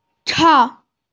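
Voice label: "chang4", Mandarin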